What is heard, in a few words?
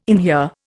"inhere", Indian